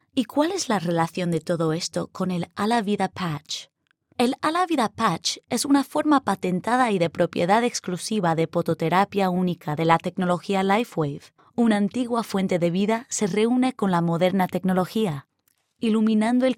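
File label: Spanish, narration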